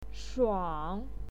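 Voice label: "shuang", Mandarin Chinese, third tone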